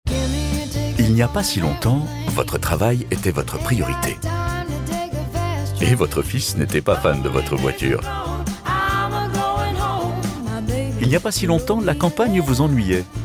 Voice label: spot tv; Skoda Enyaq